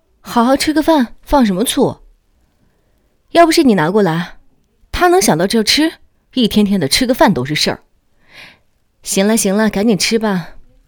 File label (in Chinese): 妈妈(角色)